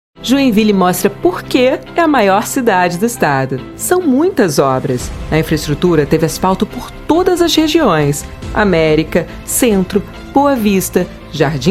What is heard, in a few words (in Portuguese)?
SPOT; Prefeitura de Joinville